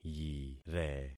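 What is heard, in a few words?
"yire", concatenated syllables; Affixing experiment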